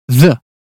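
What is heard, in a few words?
British phonetics sound this; 英语音标发音（剑桥版）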